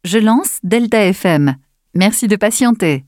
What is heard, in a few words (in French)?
lancement radio; deltafm